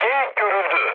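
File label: Turkish enemy detected, mood low, speech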